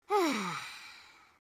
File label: sad, speech; Biggles